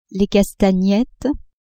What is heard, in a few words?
"castagnettes", French; instruments